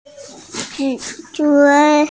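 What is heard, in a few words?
"conduct", Mandarin Chinese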